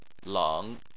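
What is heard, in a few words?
"leng", Chinese, third tone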